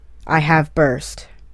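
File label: "I have burst", English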